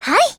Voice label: AGREE JP; 88typeMod